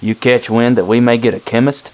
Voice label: man question